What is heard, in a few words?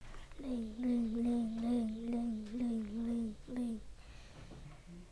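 "ring", spoken word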